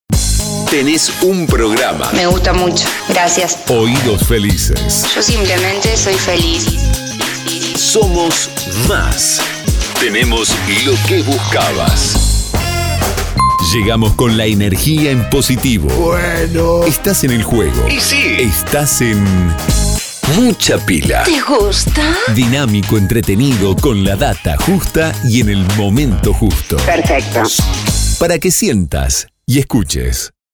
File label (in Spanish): pack, Arte generico, Voz masculina; Para vestir tu programa; Arte generico pack 1; 10 spots personalizables